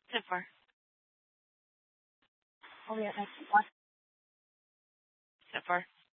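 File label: police department Dispatch, scanner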